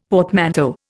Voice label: "portmanteau", Indian; Dictionary